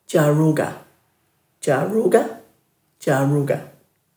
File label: "djaruga", Dhurga